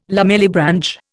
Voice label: "lamellibranch", Indian